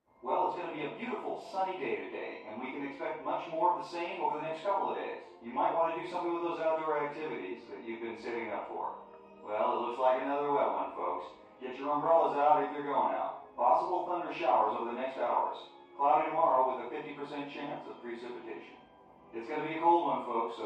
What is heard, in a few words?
Tv Male Weather Repo, news